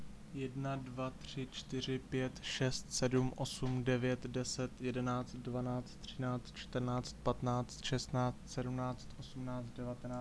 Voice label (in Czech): hlas ruzna hlasitost